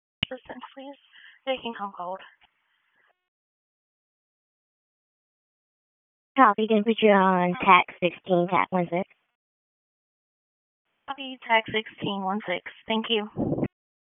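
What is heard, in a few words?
EMS Dispatch, p25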